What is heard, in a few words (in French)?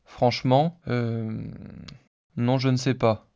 hesitation synthese basique